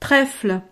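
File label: "trefle", French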